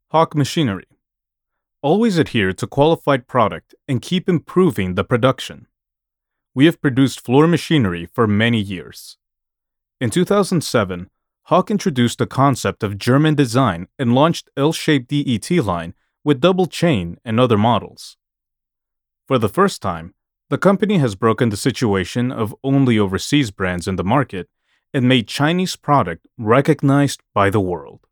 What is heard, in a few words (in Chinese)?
英文文稿